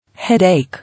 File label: "Headache", English